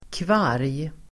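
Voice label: "kvarg", Swedish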